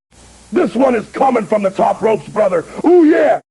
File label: smasher happy; speech; fertig-classic-games; Defender